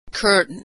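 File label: "curtain", American English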